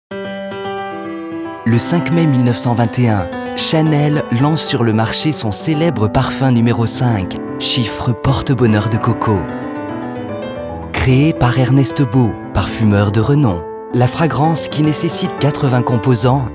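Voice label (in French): French, male, commercial; voice demos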